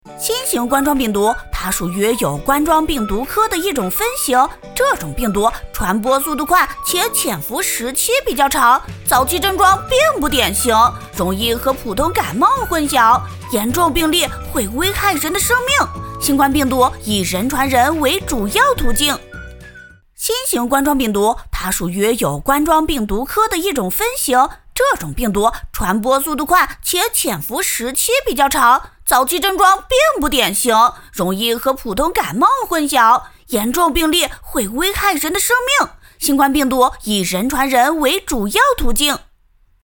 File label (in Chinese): 女 童声; 飞碟说MG